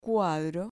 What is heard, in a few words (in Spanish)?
"cuadro", Spanish